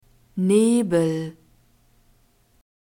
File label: "nebel", german; masculine